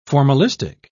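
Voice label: "formalistic", English, British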